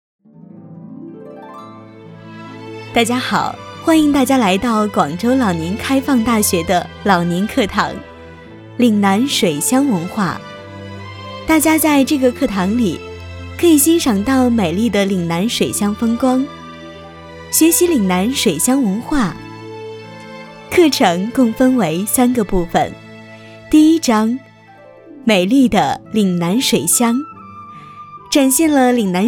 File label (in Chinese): 女, 课件 景区介绍; 老年大学